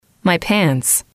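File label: "my pants", English, female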